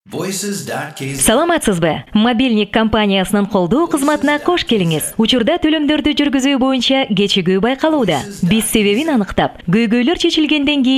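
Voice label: Kyrgyz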